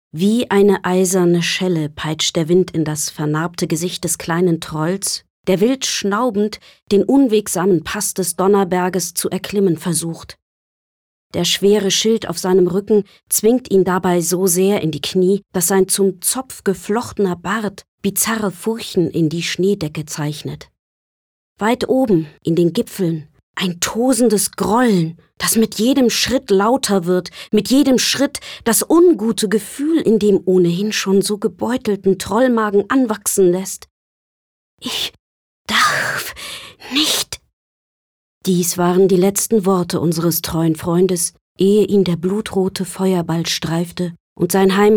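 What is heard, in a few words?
narrative; Helden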